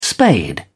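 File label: "spade", English, British English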